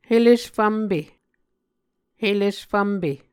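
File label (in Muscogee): "helesfvmpe", Muscogee word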